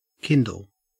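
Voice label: "kindle", Australian English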